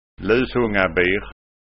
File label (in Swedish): "lushungabir", skanskaord